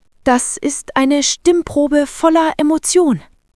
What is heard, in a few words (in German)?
Rennend, Stimmen; moods